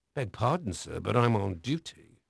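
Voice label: English male; Pirates Of The Caribbean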